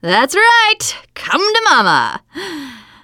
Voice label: finale button press lift, teengirl, voice